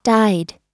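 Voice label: "died", American English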